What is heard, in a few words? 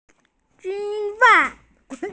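"bullet", Mandarin; zi3dan4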